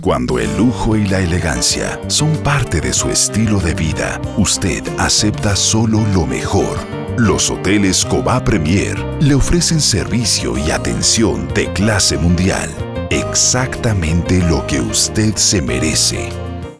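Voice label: Spanish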